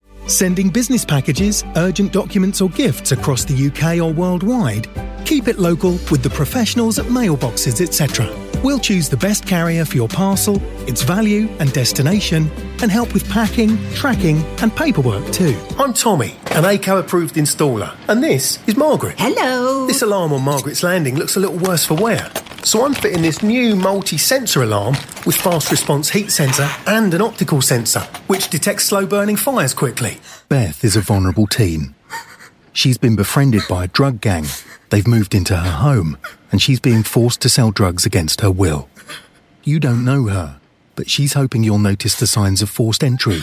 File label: commercial reel, Energetic and Natural Male